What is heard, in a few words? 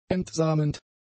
"entsamend", German; adjectives